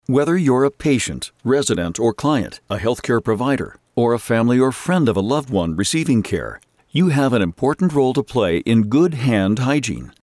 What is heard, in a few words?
Medical and Science voice over; Hygeine